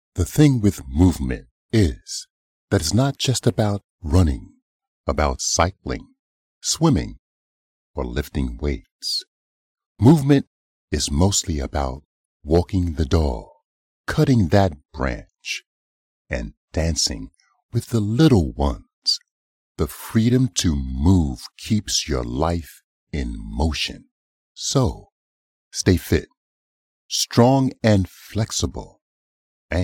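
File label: US English Narrative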